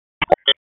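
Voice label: Police Spec Ops Tac, radio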